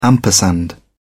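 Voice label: "ampersand", English, British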